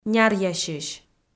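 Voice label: "няръясьысь", Komi, female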